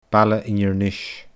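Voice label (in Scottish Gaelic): "Baile Inbhir Nis", Scottish Gaelic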